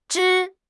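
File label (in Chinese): "枝", Chinese; HSK6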